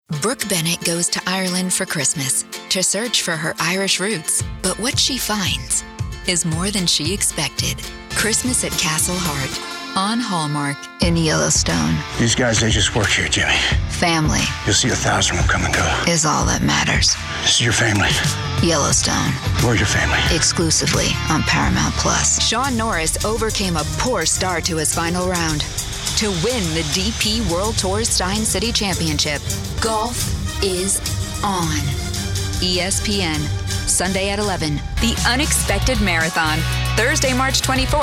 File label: Promo